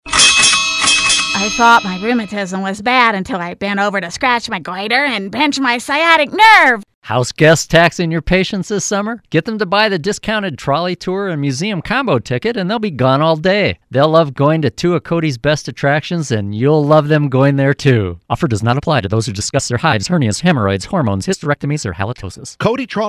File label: Radio Ad; Bunion